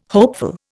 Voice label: "hopeful", Indian